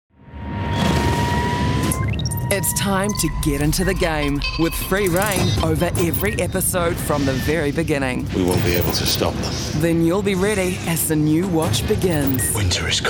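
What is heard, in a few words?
english australian female commercial; voice demos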